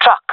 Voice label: loc truck, jungle fem; vo; Counter Strike Model Pack; CS:GO

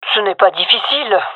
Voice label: round winning, fem; vo

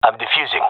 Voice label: defusing, gendarmerie male; vo

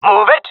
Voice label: request move, jungle male; vo; agents; Source Model Packs; Counter Strike Model Pack; CS2

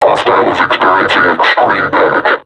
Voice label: taunt, vo combine suppressor; Half Life Alyx

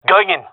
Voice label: attacking, male; vo

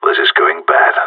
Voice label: scared emote; Counter Strike Model Pack; CS:GO; gsg9

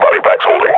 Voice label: injured, grunt; Half Life Model Pack

